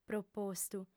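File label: "propostu", female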